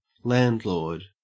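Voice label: "landlord", Australian English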